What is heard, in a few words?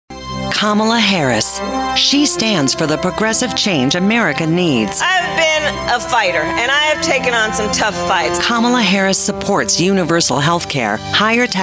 Political Ads